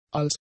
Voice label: "als", German; conjunctions

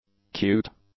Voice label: "cute", English; adjectives

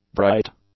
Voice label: "bright", English; adjectives

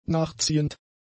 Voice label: "nachziehend", German; adjectives

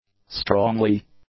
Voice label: "strongly", English; adjectives

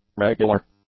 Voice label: "regular", English; adjectives

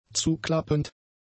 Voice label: "zuklappend", German; adjectives

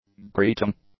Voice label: "greeting", English; nouns

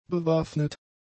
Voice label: "bewaffnet", German; adjectives

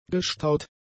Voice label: "gestaut", German; adjectives

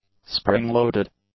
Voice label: "spring-loaded", english; adjectives

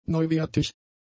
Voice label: "neuwertig", German; adjectives

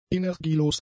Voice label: "energielos", German; adjectives

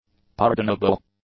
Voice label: "pardonable", English; adjectives

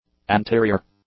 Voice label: "anterior", English; adjectives

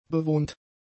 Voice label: "bewohnt", German; adjectives

